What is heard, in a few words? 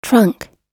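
"trunk", English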